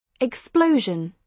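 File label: "explosion", British English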